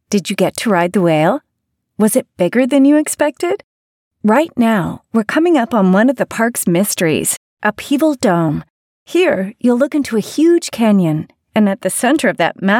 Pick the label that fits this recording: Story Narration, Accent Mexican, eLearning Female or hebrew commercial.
eLearning Female